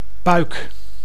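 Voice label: "puik", Dutch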